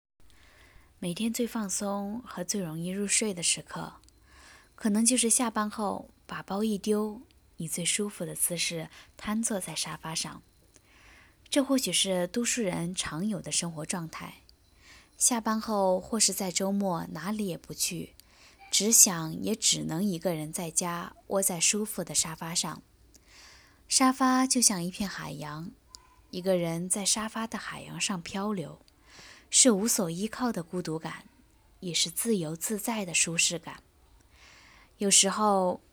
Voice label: 旁白

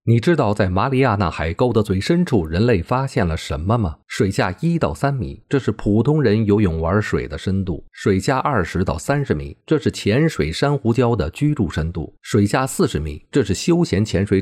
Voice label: 短视频 男

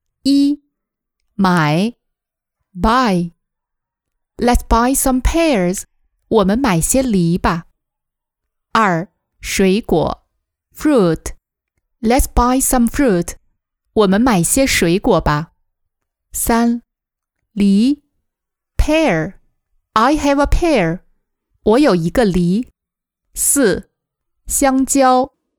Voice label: 英语单词试音

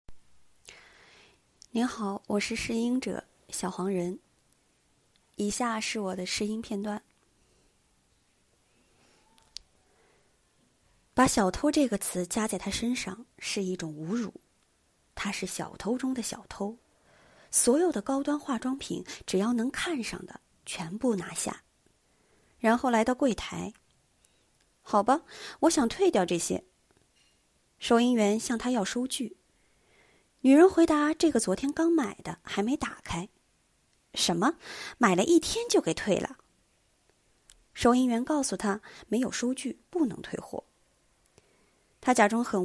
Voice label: 旁白

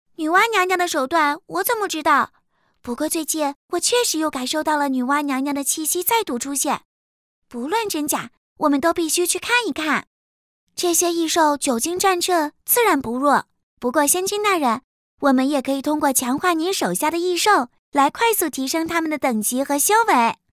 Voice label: 模仿类女童

